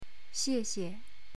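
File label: "xie4xie", Chinese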